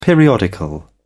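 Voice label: "periodical", British English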